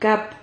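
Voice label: "cap", Spanish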